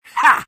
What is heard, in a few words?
sniper taunt rps win